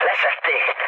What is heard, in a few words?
aircraft destroyed, speech hun; War Thunder